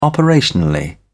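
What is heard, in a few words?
"operationally", British English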